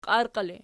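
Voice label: "qiarqii" ergative, Archi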